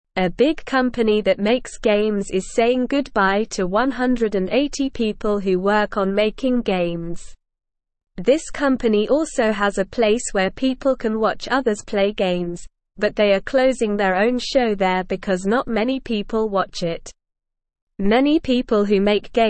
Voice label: English Newsroom Beginner SLOW Reading; Big Game Company Says Goodbye to Many Workers